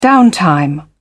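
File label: "downtime", British English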